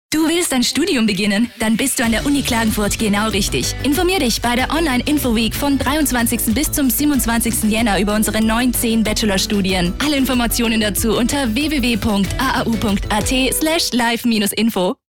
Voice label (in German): Frau OFF